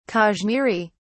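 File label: "kashmiri", British English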